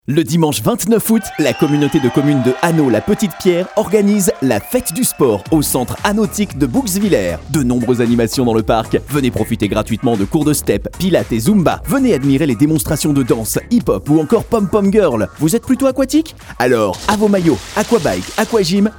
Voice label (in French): spot radio; Faites du sport; Centre aquatique Hanautic de Bouxwiller; Hanau La Petite Pierre